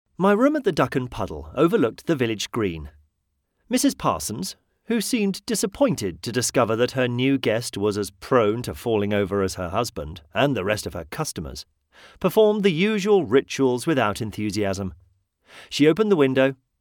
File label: male british voiceover audiobooks; bilbury chronicles